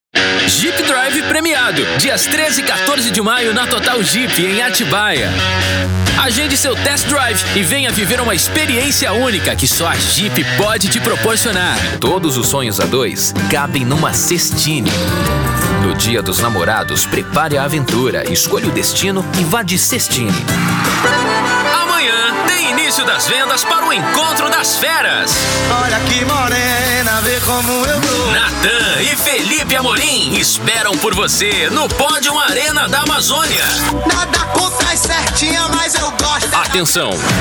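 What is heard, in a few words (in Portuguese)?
VOZES MASCULINAS, Padrão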